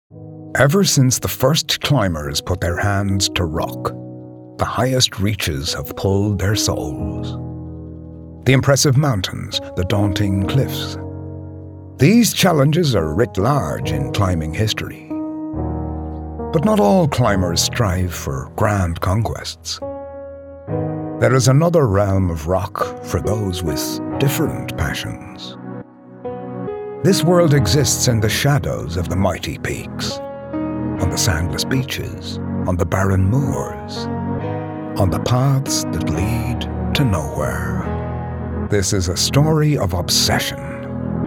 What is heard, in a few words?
Irish Doc